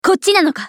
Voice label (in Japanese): ファイター女 移動